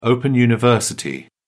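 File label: "open-university", British English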